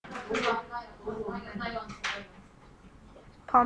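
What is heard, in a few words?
"pump", spoken word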